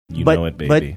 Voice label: quietly saying you know it baby